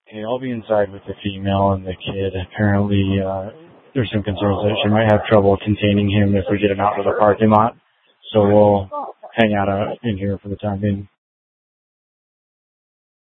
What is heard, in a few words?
Mesa PD Fiesta Dispatch